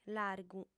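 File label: "largu", Sardinian, female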